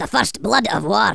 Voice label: FIRSTBLOOD, Angry Scots; Worms Armageddon